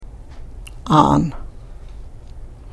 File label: "aan", Karuk